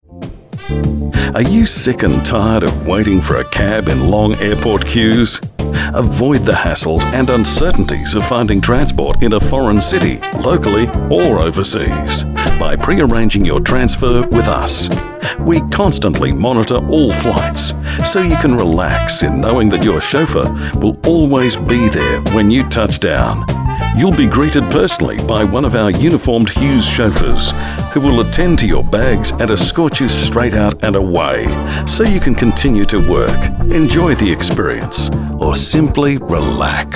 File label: onhold